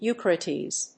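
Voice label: "eucaryotes", English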